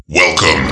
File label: "welcome", dutch